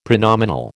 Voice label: "pronominal", American